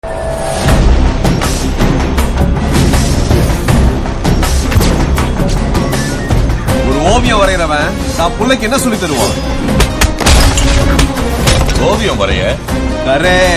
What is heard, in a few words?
Tamil Trailer Dialogue Ringtone; NGK; Suriya